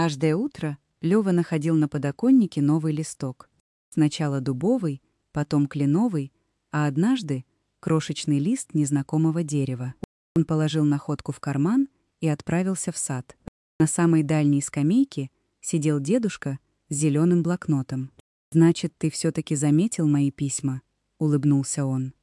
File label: voice story ru; audiogid